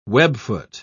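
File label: "webfoot", British English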